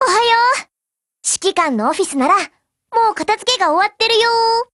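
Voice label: Japanese, Voice; GF Alarm; QBU88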